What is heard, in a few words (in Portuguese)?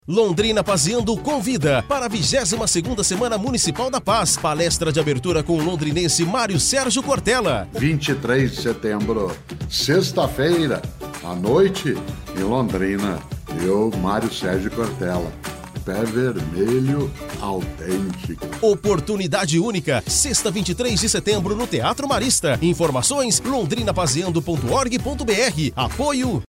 spot radios falando; cortella